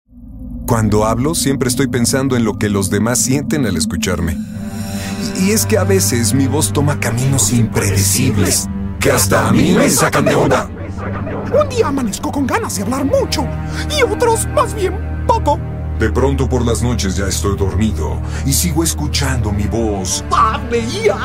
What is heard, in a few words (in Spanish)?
tus ideas en mi voz, locutor